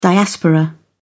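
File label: "diaspora", British English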